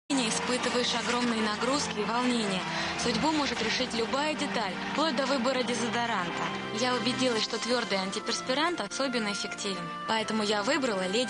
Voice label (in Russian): reklama